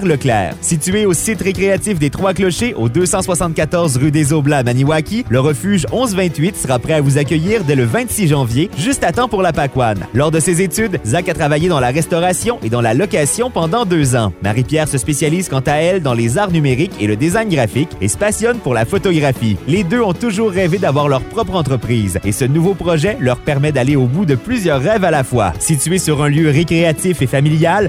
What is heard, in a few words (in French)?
capsule économique, radio; refuge